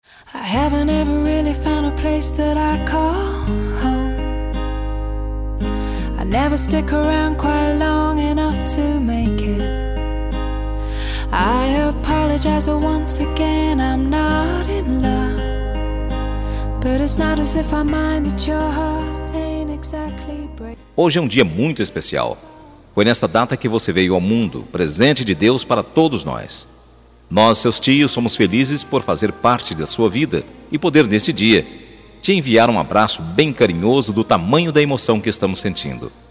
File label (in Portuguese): ANIV SOBRINHO PL MASC, telemensagem; aniversario sobrinha sobrinho